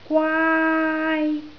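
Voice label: "gwaai1", Cantonese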